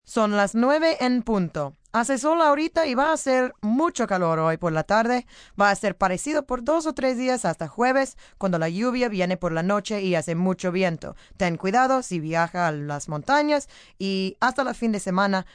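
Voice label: News Sunny, Broadcast, news, female news item in Spanish on weather, sunny; sunny rain on the weekend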